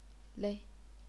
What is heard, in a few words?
"lei0", chinese pinyin